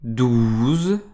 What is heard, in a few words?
number 12, French